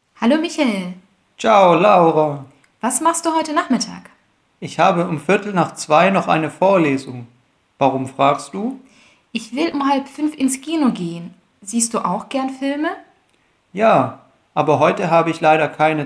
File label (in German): Dialog